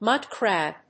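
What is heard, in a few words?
"mud crab", English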